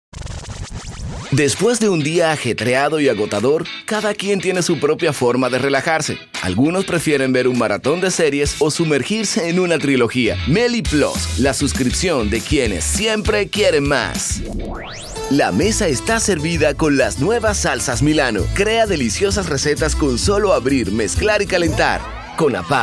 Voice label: spanish latin american commercial; Dominican Republic